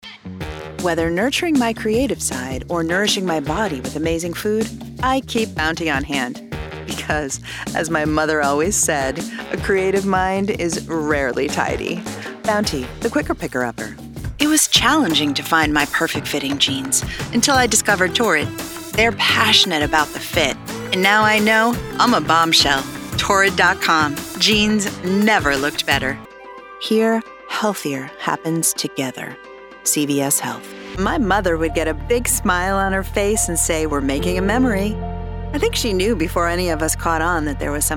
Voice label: Commercial